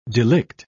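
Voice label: "delict", British English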